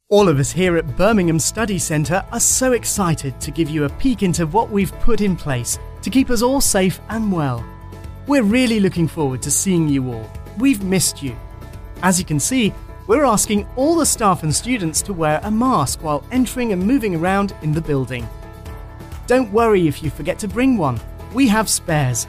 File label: Covid-19 Explainer; ArdenUni